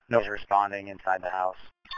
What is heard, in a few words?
Police Sheriff Dispatch